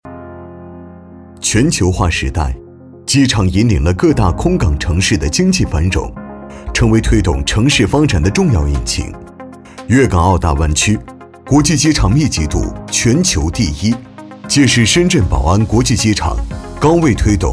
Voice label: 男 科技感 全球化时代

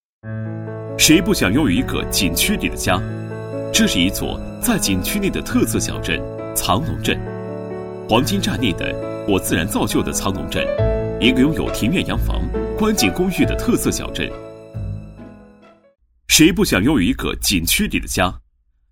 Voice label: 男 文旅 藏龙镇; 文旅配音

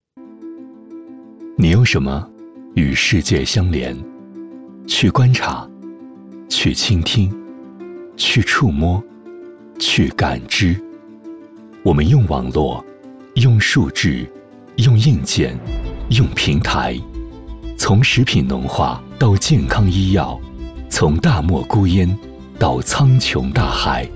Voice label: 男 大音 科技 数智化浪潮

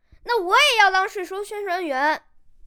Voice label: 对口男真童 邮递员

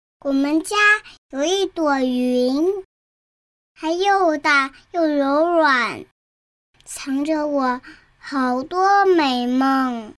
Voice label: 真男童; WEY; 真童配音

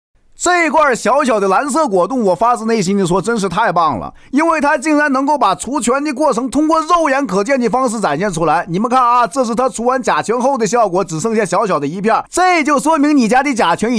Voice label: 东北方言 男 东北话 直播; 方言配音

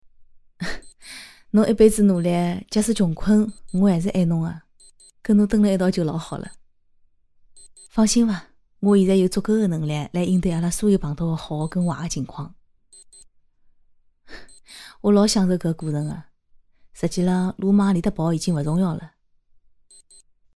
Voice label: 上海方言 女 上海话; 模仿马伊琍上海话

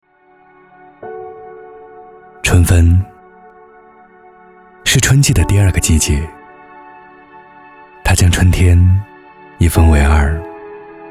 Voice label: 男 素人旁白 节气 春分; 文旅配音; 文旅节气样音

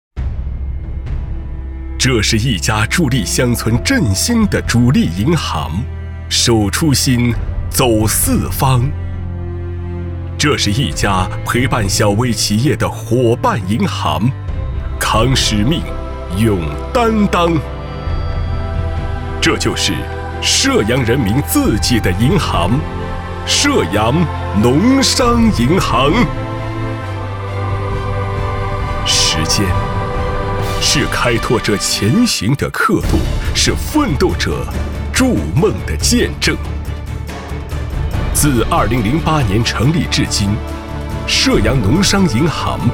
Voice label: 男 宣传片; 银行宣传样音; 射阳农商银行宣传片

